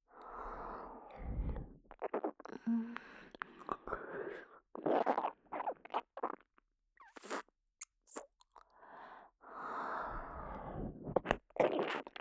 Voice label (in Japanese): じゅぽっ…じゅぽっ…, 左耳